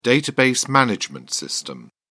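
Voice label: "database management system", English, British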